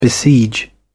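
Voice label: "besiege", British English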